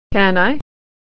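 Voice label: "can", American English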